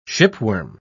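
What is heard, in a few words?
"shipworm", British English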